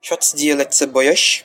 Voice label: do you speak, luxembourgish; Schwätzt Dir Lëtzebuergesch?